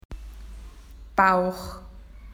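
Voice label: "stomach", Australian English; PictureDictionary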